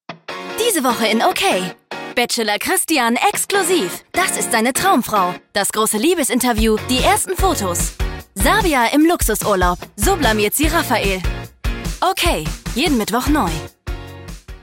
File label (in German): spot